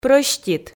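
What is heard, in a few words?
"прӧстит", Komi, female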